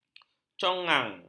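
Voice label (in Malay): "conggang", Malay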